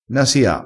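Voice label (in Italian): "nasia", dialettale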